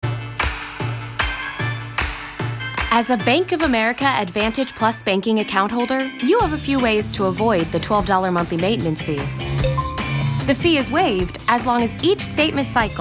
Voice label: Commercial Audio; Bank of America

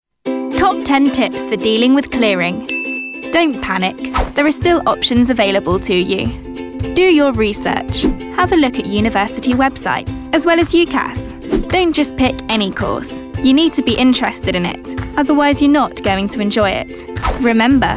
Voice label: montage narrative Female

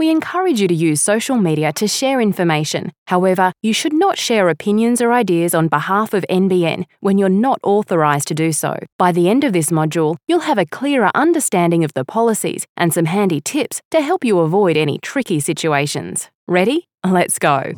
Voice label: Social Media Training; NBN

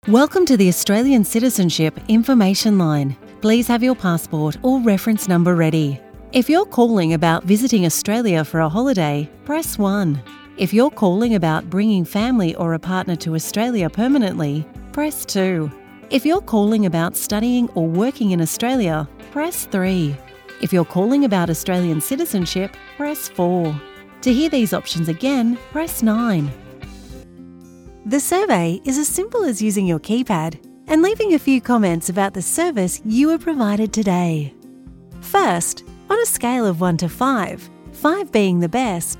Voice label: telephony

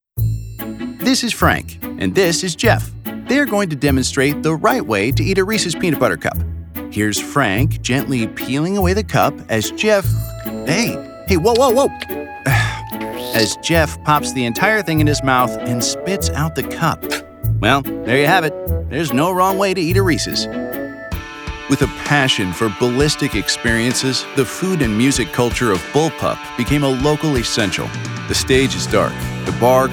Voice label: explainer

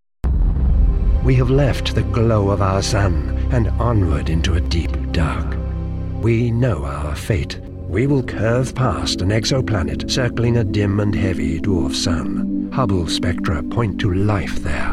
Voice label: SciFi Double; Ellison

